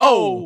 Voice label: "oh", Voice

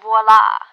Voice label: "voila", female; Voice

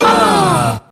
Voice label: uh, group; ooh ahh; uh um

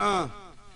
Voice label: "uh", male

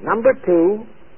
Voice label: number two male; Voice; numbers and letters

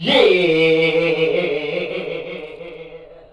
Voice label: "yeah", long

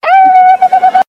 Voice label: owlelele, Voice gibbrish

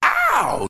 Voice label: ow male; ooh ahh; ow ouch

